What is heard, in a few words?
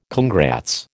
"congrats", American